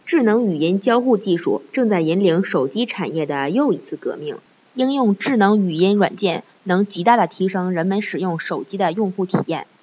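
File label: Chinese, speech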